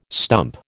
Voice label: "stump", American